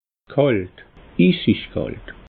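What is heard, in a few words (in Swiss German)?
"kalt", Alsatian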